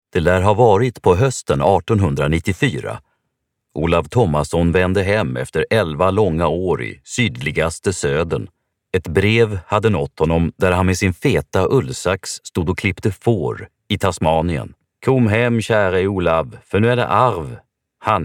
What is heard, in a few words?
Audiobook